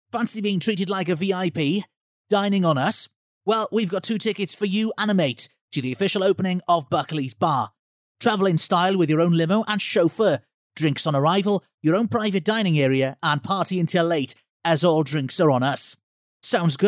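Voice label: Welsh English, male, voice over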